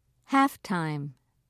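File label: "halftime", British English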